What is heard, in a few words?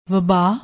"wabba", Punjabi; ਵਬਾ